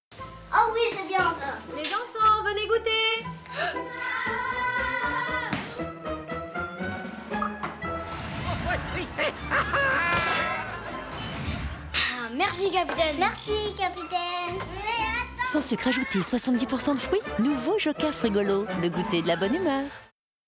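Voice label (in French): maman legere, comédienne voix off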